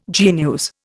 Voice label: "genus", Indian

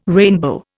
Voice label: "rainbow", Indian

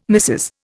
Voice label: "Mrs", Indian

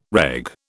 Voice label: "reg", British

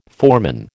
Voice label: "foreman", American

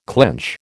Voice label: "clench", American

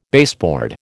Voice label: "baseboard", American

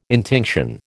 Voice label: "intinction", American

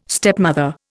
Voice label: "stepmother", Indian

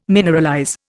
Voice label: "mineralize", Indian

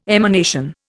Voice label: "emanation", Indian

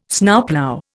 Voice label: "Snowplough", Indian

